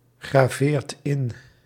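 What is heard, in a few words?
"graveert in", Dutch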